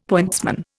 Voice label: "pointsman", Indian; Dictionary

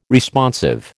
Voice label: "Responsive", American

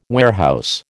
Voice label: "warehouse", American

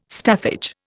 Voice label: "staffage", Indian

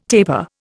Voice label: "tapir", Indian

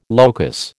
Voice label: "locus", American

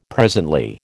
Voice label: "presently", American

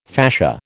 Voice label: "fascia", American

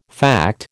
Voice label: "fact", American

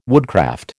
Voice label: "woodcraft", American

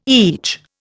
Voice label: "each", Indian

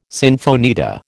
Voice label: "sinfonietta", American

